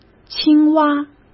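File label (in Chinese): "青蛙", Mandarin Chinese; chinese flashcards; hsk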